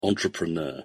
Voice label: "entrepreneur", pronunciation en